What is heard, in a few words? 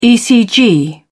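"ecg", British English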